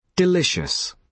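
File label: "delicious", British English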